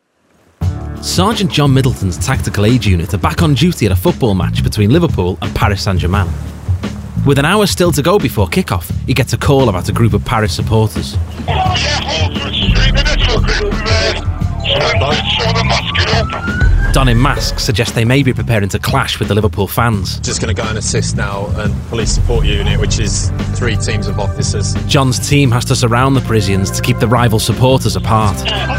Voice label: male english liverpudlian accent narration; Devine Voices

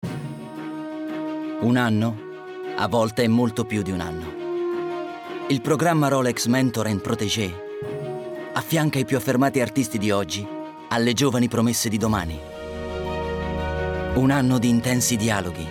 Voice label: male italian commercial; rolex

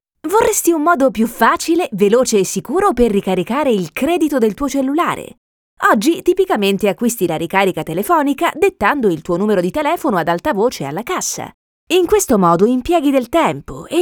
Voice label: female Italian promo

